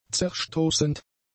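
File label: "zerstoßend", German; adjectives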